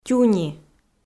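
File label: "тюни", Komi, female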